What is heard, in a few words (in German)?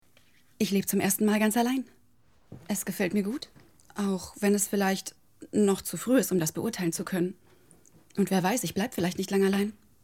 französische Komödie Synchron